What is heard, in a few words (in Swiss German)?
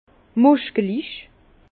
"muskelich", Bas Rhin; vin; musclé